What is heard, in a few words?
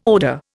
"odour", Indian